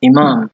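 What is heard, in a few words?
"imam", Nigerian Pidgin, male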